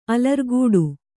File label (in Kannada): "ಅಲರ್ಗಟ್ಟು", Kannada